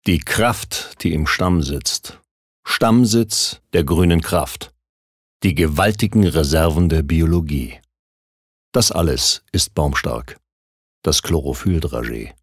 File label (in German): Werbung Kraftvoll